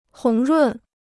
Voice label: "红润", Chinese